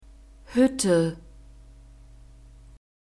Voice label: "huette", German; alphabet

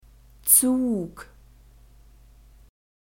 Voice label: "zug", German; masculine

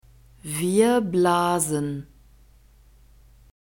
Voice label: "wir blasen", German; verbs present tense irregular

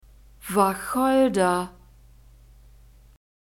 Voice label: "wacholder", German; nouns gender feminine

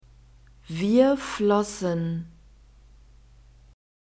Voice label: "wir flossen", German; verbs past tense irregular

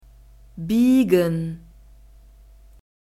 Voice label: "biegen", German; verbs past tense irregular

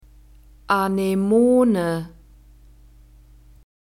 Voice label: "Anemone", German; nouns gender feminine

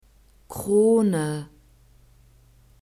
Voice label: "krone", German; masculine

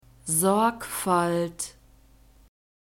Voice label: "sorgfalt", German; nouns gender feminine

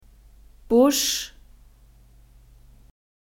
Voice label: "busch", German; nouns gender feminine

